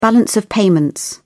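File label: "balance of payments", British English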